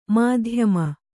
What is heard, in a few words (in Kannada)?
"ಮಧ್ಯಮ", Kannada